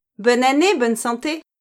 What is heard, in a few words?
"bonne année bonne santé", French